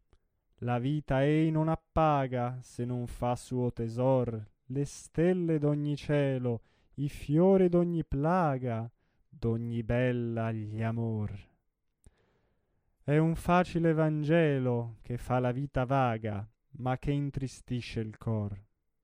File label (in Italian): espressivo; Madama Butterfly; Dovunque al mondo